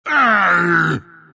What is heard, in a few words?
anger; dota; omniknight